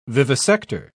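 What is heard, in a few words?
"vivisector", British English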